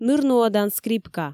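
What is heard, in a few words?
"нырнуӧдан скрипка", Komi, female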